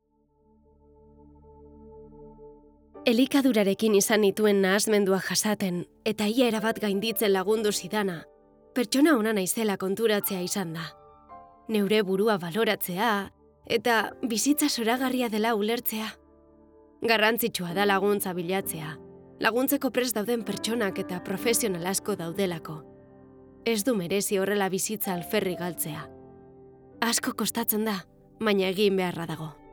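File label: documentary Euskera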